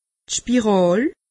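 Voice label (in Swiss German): "spiral", Alsatian; medecine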